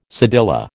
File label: "cedilla", American